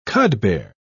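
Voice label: "cudbear", British English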